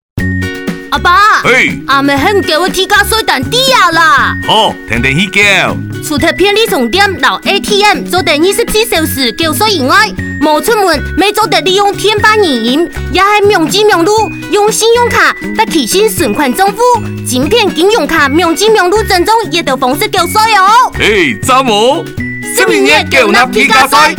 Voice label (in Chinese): 全國性地價稅開徵宣導廣播廣告(客); 102年度結合統一發票辦理; 102年度地價稅開徵宣導短片客語